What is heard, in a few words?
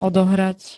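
"odohrať", Slovak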